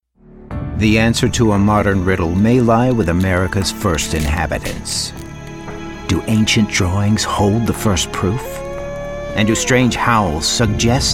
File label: Narration